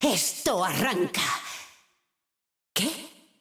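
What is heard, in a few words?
European Spanish; 索杰恩（守望先锋2）/终极技能; 欧洲地区; 西班牙语; ¡Esto arranca! (pausa)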